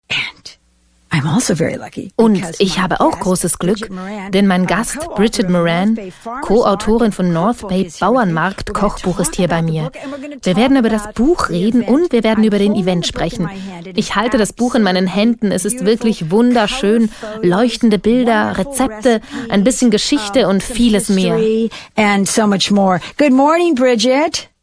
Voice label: voice over